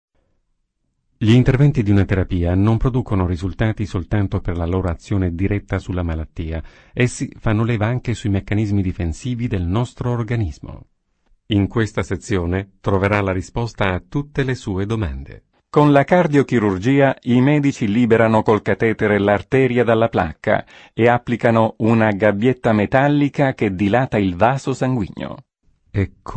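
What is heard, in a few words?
Italian male voice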